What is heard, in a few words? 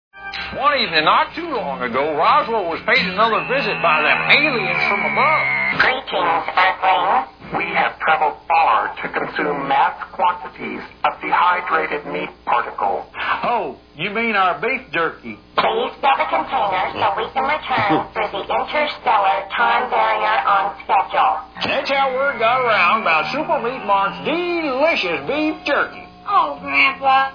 tv spot; six days in roswell